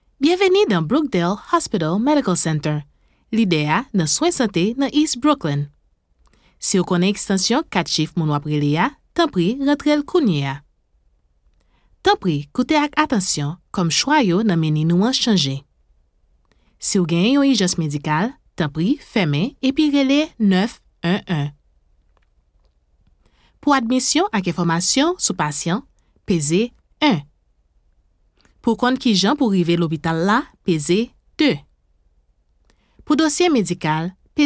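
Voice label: haitian creole interactive voice response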